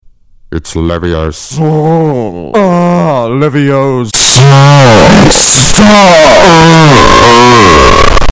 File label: super loud leviosa